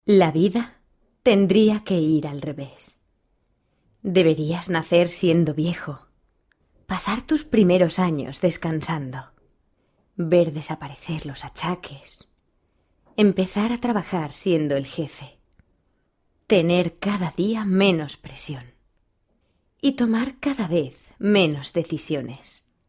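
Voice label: Spanish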